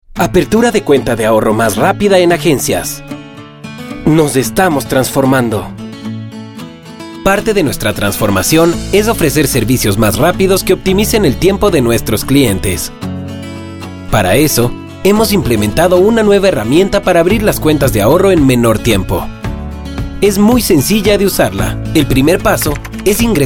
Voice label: spanish latin american e learning